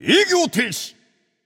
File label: alert notify kill streak breaker, japanese; caster